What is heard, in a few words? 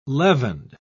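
"leavened", British English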